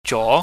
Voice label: "jo", chinese

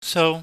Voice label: "sou", Chinese

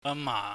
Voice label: "amah", Cantonese

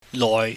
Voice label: "loi", Chinese

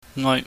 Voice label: "ngoi", pingyam

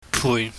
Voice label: "pui", pingyam

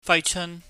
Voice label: "faicheun", cantonese

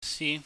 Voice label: "si", pingyam

